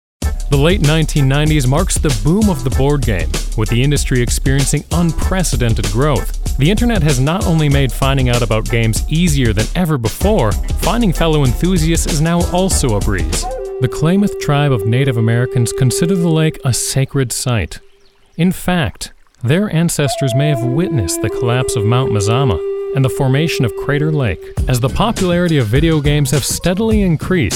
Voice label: narration